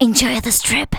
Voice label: enjoy this trip, spoken; Techno Trance Essentials